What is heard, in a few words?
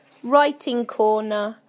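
"writing corner", British English; PictureDictionary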